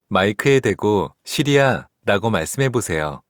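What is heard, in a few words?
Korean; iOS 27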